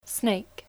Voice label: "Snake", English spelling